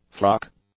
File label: "frock", English; nouns